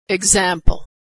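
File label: "example", American English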